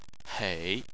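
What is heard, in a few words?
"hei", Chinese, third tone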